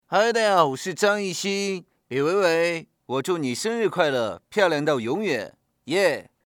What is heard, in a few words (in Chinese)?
男 模仿